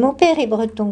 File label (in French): schwa breton